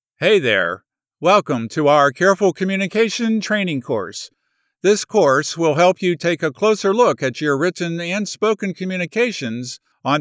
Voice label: eLearning Corp Conversational